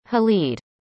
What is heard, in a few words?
"Halid", arabic; indonesian boy names